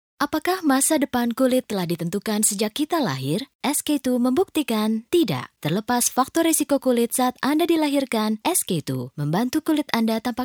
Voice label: indonesian commercial

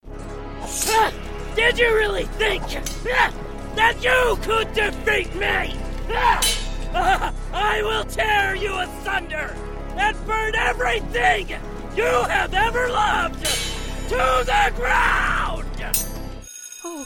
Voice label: english american others

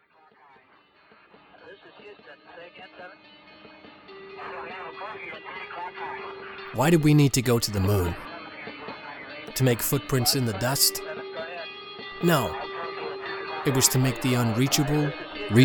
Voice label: english british automotive

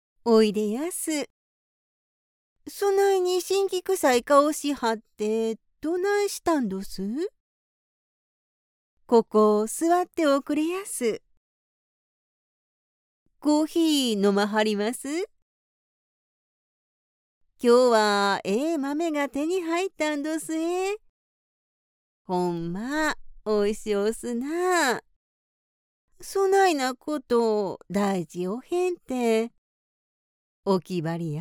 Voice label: japanese animation

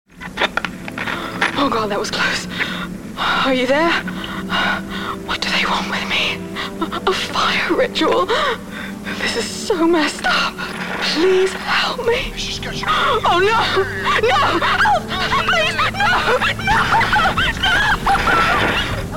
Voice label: english british video games

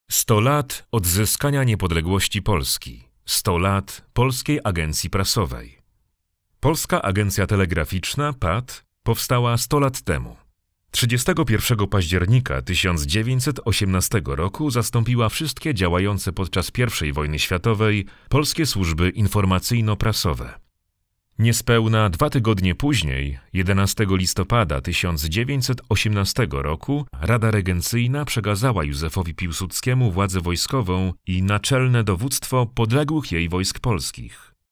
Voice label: Polish narration

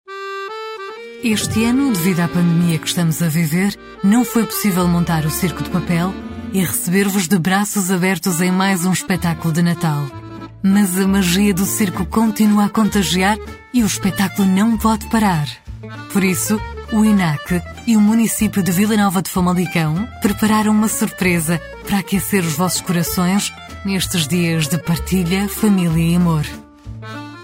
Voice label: portuguese others

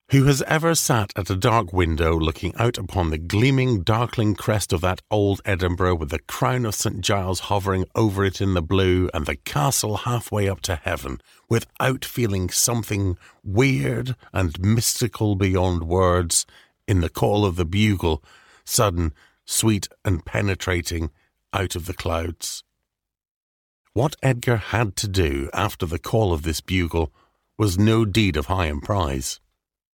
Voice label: english scottish audiobooks

